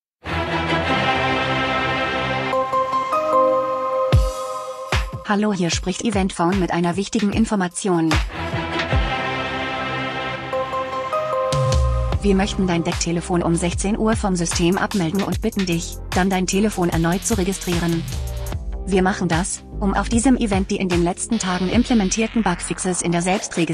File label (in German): Ansage Deregistrierung; Easterhegg